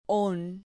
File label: "hon", Spanish syllable